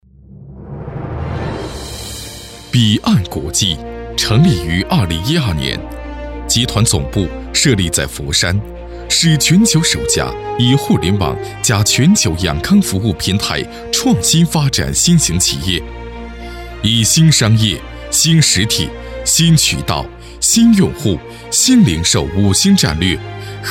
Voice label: 气势恢宏磅礴

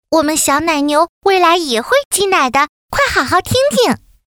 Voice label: 女童声; 小奶牛

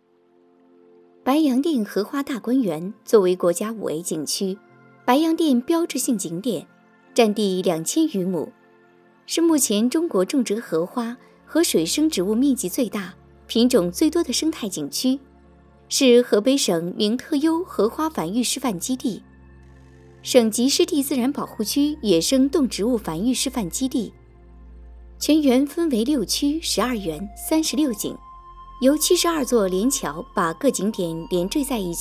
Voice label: 景区讲解 清雅

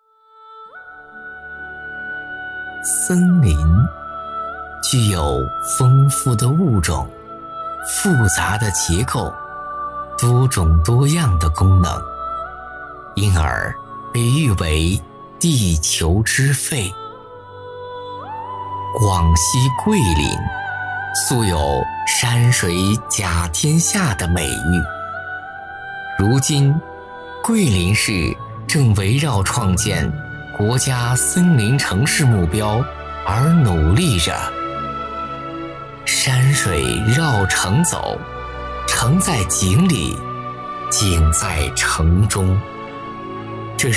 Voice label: 纪录片城市宣传片桂林

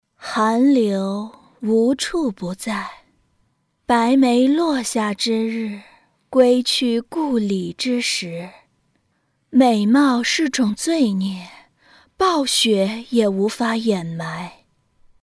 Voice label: 游戏配音; 王昭君

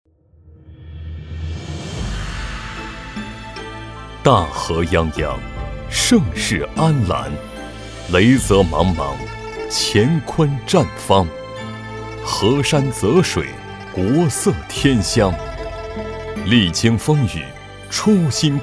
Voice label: 品牌广告; 茅台

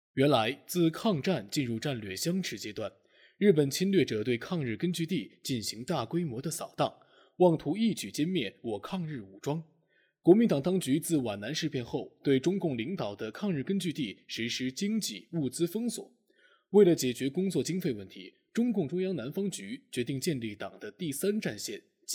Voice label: 宣传

